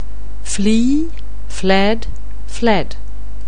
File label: "flee", anglais